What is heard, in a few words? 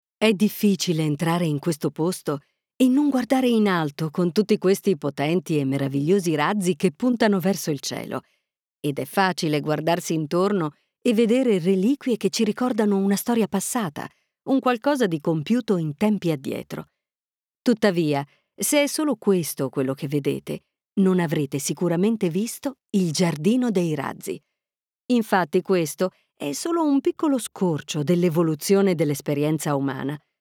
Audioguide Docum eLearing